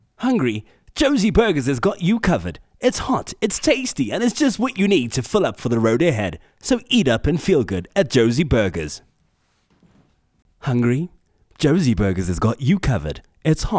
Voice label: Soft Sell; Jozi Burgers